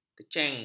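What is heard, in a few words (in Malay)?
"keceng", Malay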